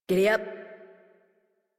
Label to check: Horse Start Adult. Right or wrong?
Right